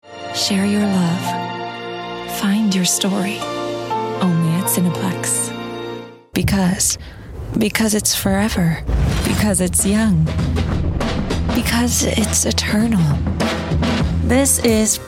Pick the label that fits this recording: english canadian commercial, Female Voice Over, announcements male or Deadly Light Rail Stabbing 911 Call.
english canadian commercial